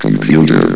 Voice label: "computer", vox; valve